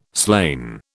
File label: "slain", British